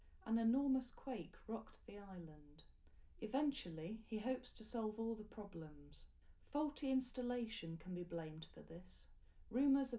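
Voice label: female, live recording, 250 ms, 1 m; SiSEC11; underdetermined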